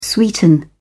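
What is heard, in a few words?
"sweeten", British English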